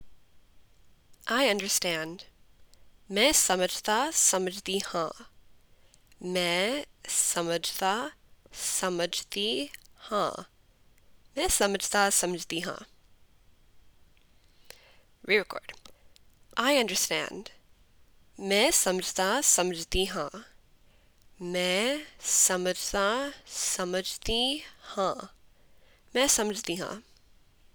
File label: Punjabi I understand